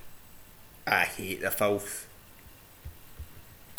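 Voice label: "filth", Scottish slang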